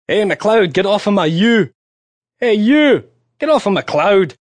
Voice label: Hey, Male; Talking Sound Effects; HeyMcCloud; VoiceBox; Twistedtracks